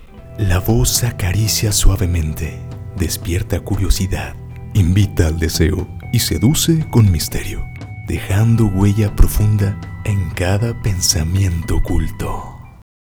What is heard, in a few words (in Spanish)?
Voz Sensual Musicalizado